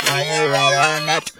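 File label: effects talk, Funky Vocals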